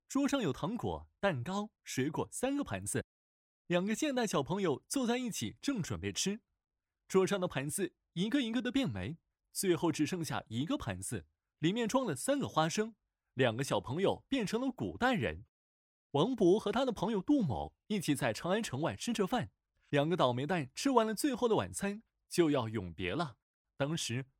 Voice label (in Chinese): 男 年轻讲述; 送杜少府之蜀州